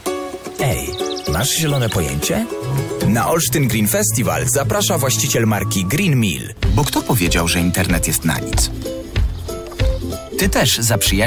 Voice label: Polish

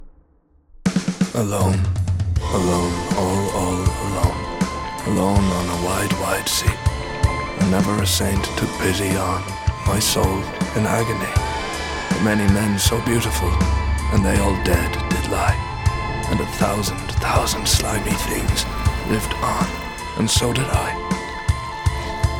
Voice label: Animation

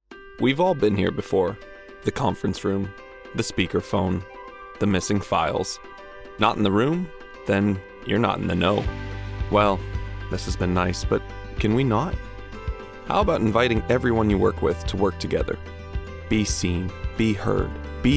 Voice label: corporate reel

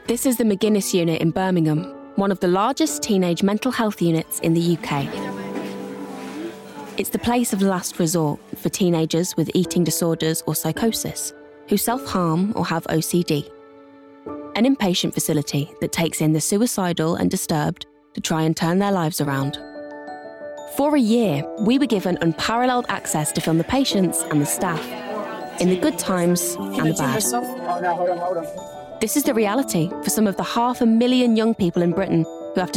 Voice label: Narration; 20s-30s; Female; Birmingham; West Midlands

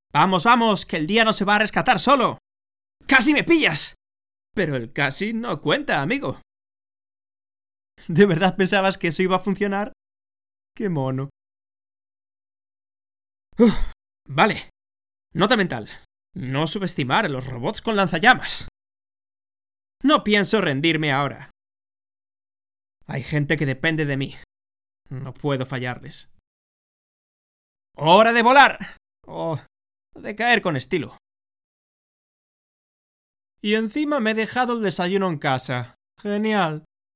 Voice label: ES, spanish

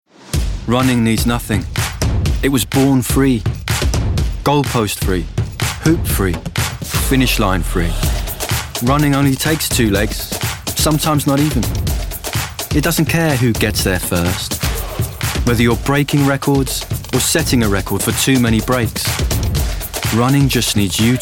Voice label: Commercials

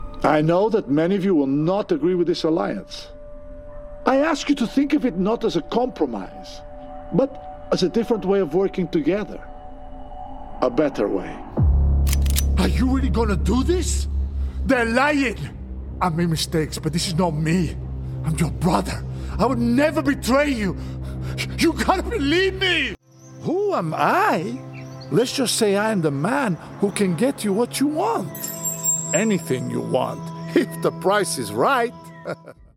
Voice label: English

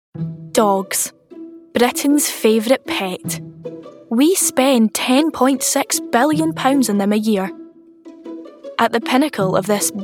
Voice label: Documentary

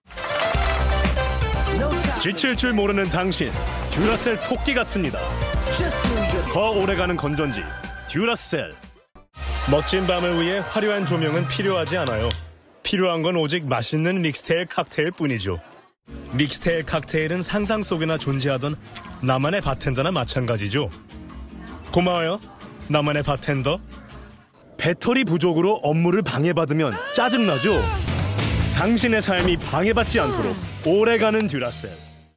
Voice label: Korean; Korean, Male, 40s-50s

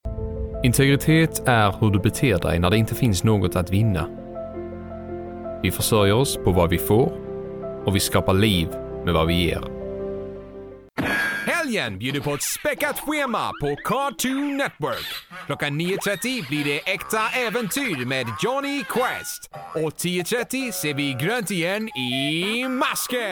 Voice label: Swedish, Scandinavian, Male; Home Studio, 20s-40s. Based in Sweden.

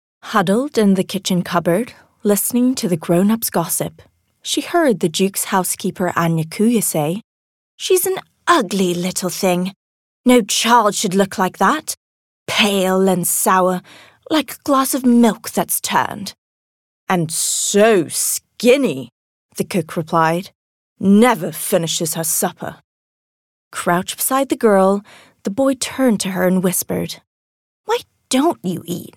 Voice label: Audiobook, 30s

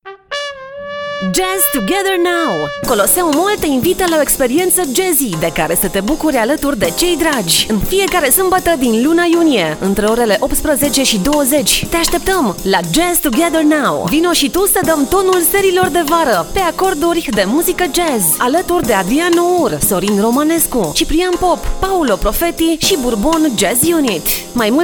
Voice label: Romanian

